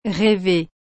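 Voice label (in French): "rever", French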